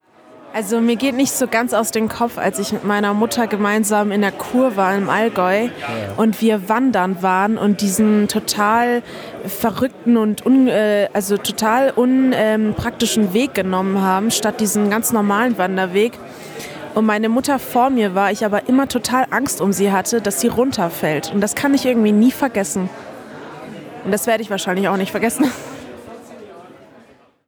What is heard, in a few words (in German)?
stimme w